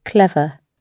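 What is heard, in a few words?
"clever", British English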